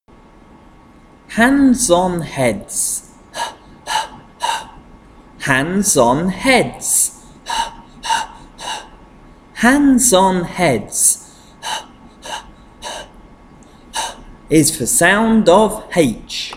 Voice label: Consonant Chant h